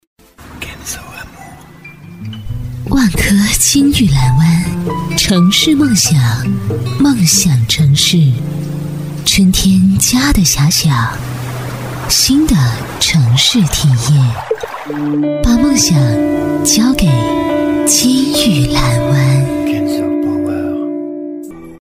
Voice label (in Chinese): 女 广告 地产类; 万科地产